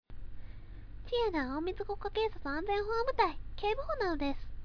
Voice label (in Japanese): ボイスサンプル, 女, 15歳; 性別:女; 年齢:15歳; 戸川 ちえな(とがわ -); 性格:誰にでも優しく、動物と会話をしている事が多々。; 「ちえなは隠密国家警察安全保安部隊警部補なのです」